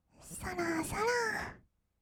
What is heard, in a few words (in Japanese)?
さらさら, kawaii voice; ASMR; 色々なワンショット